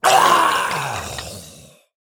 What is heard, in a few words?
Male Skeleton Death